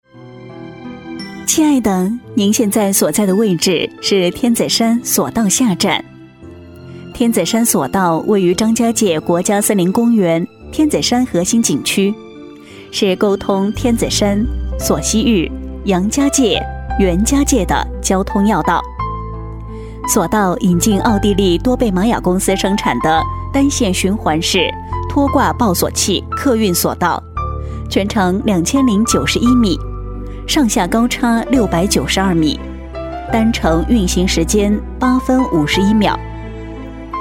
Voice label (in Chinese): 女 景区专题 景点温馨提示; 洪亮激情; 年轻稳重